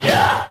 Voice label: "yeah" monster; ooh ahh